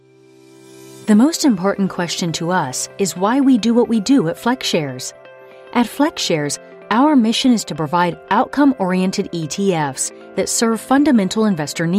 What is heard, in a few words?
Explainer